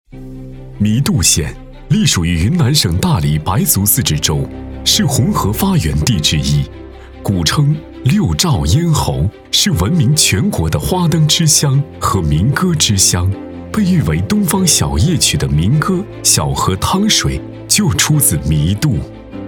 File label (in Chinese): 男, 银行宣传; 银行宣传样音; 中国农业银行弥渡县支行